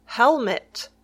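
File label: "helmet", English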